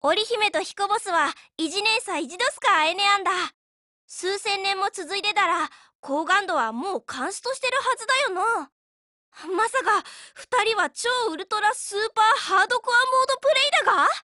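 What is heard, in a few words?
Japanese; Type82S; TANABATA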